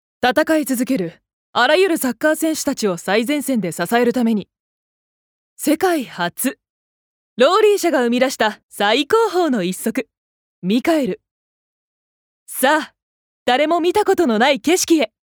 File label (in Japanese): ナレーション